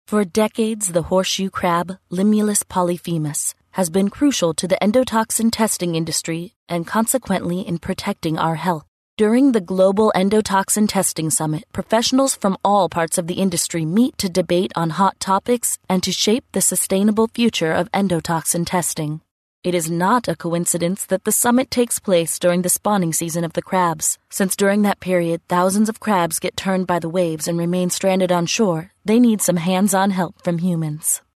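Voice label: English, US, female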